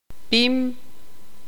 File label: tzutujil; grueso de objetos planos